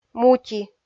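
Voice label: "мути", Komi, female; kpv audio dict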